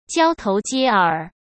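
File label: "交头接耳", Mandarin Chinese; chinese-flashcards; hsk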